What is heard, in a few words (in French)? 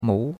"mou2", chinois; Cours de Chinois